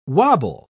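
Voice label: "wabble", British English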